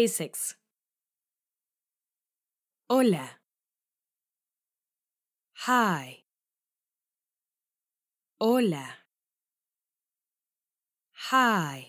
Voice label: Spanish Phrasebook